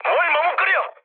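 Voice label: Korean attack position mood med, speech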